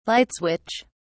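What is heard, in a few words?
"light switch", English, British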